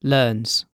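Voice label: "learns", British English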